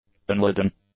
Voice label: "unladen", English; adjectives